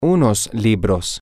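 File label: some books, Spanish, male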